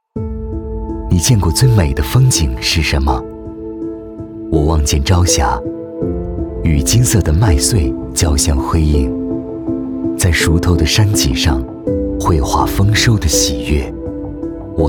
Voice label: 男 走心 风景

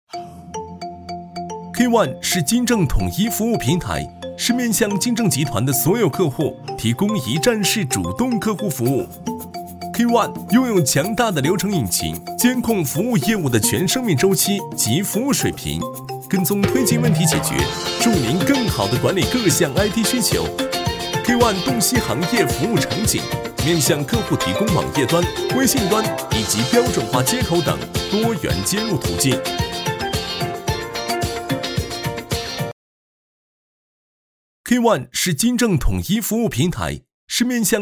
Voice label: 男 MG 年轻; K-ONE

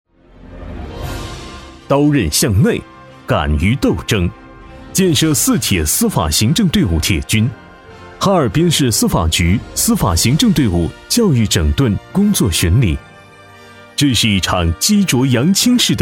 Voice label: 男 纪录片; 哈尔滨司法局

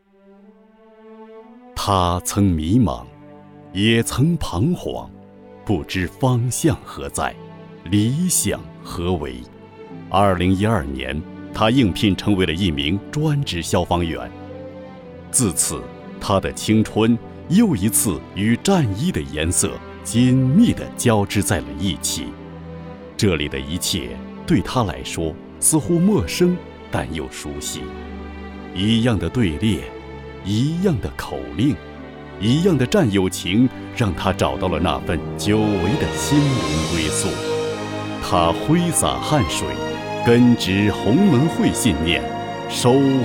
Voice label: 男 专题 青阳消防

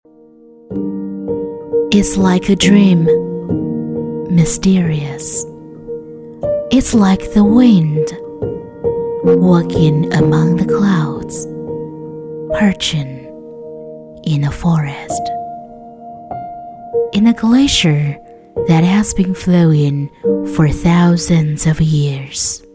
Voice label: 英女 英语广告 品质广告

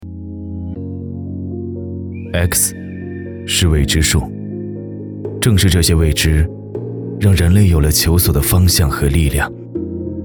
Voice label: 男, 走心宣传; 是未知数

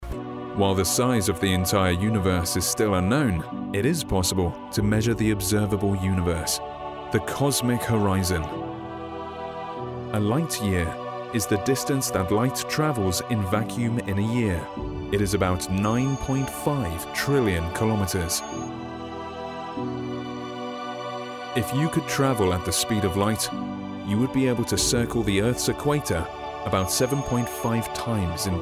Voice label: 外籍英男 鼓舞人心 科技解说

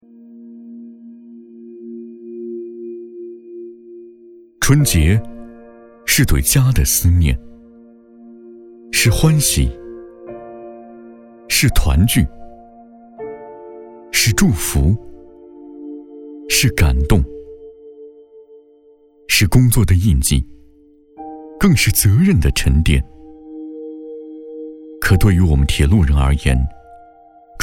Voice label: 男 春节温暖篇

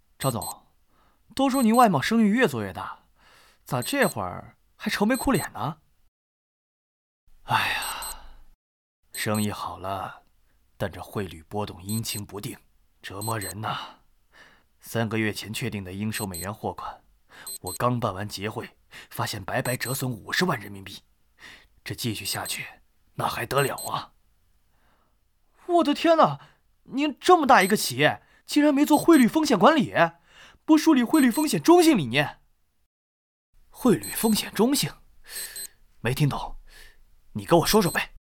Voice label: 男声配音 饰演a b角色; hsk一路

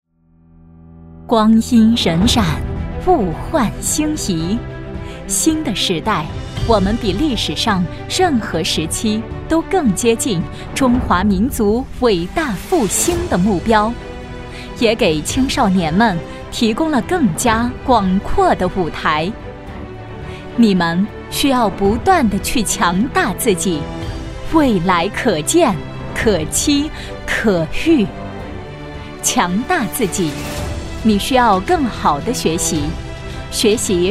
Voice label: 女 专题; 光阴荏苒

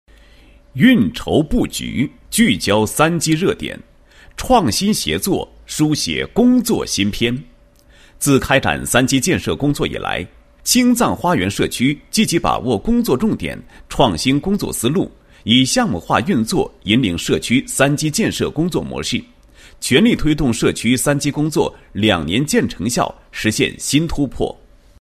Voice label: 男 工作汇报; 笃志“三基”，共创和谐

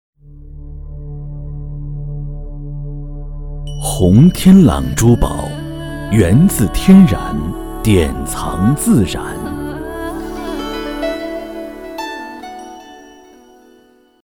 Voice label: 男-广告-浑厚-珠宝; 著名珠宝弘天郎上市广告语

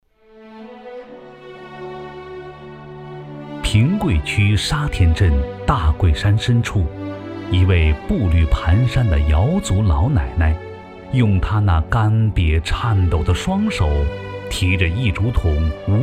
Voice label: 男 党建; 平桂土瑶脱贫

